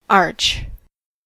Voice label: "arch", English